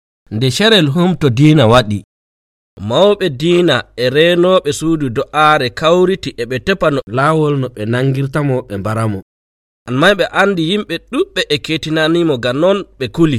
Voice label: Fulfulde Nigerian Jesus Last Supper